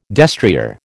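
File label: "destrier", American English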